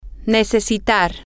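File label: to need, Spanish, female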